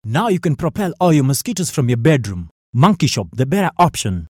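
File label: English Afrikaans Male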